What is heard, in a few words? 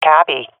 affirmation, swat fem; vo; agents; Source Model Packs; Counter Strike Model Pack; CS2